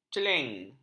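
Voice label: "celeng", Malay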